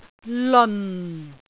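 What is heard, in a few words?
"leon4", Cantonese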